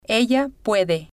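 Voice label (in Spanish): ella puede, Spanish, female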